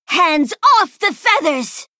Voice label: Female Blood Elf Attack